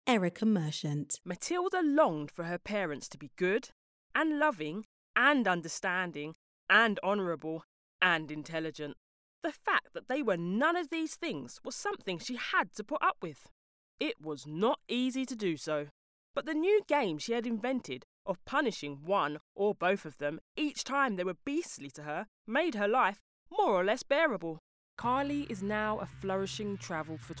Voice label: narration voice reel